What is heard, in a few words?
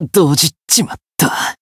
voice jp